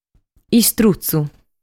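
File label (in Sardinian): "Istrutzu", Sardinian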